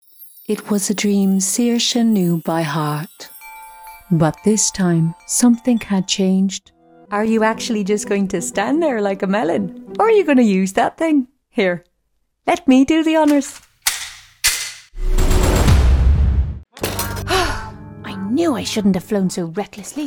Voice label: female English Irish neutral Irish accent video gaming compilation; irish southern